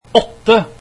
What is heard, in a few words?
eight, norwegian